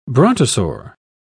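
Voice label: "brontosaur", British English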